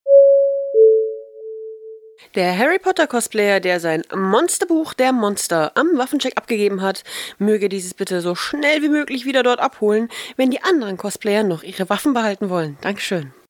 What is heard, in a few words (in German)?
durchsage